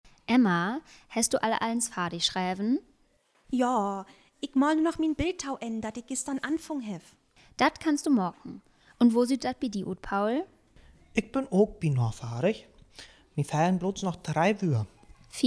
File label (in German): Dialog, niederdeutsch